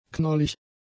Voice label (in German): "knollig", German; adjectives